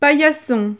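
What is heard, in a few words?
"paillasson", French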